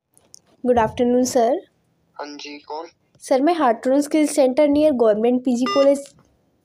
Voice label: call recording